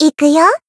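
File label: Voice attack Japanese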